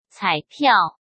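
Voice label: "彩票" Mandarin Chinese; chinese flashcards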